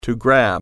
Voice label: "grab", English

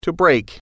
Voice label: "break", English

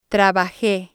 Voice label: "trabaje", Spanish

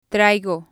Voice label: "traigo", Spanish

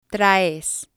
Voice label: "traes", Spanish

